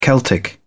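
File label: "celtic", British English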